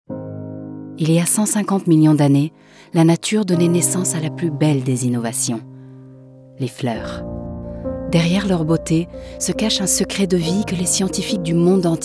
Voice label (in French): voix off femme fr; Studio Com'un Son; SPOTS RADIOS